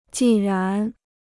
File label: "既然", Chinese